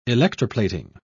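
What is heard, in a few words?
"electroplating", British English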